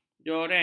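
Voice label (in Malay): "joreng", Malay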